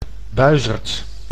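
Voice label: "buizerd", Dutch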